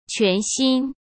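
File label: "全新", Mandarin Chinese; chinese flashcards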